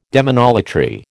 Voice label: "demonolatry", American